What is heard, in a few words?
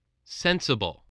"sensible", english spelling